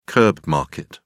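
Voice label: "curb market", British English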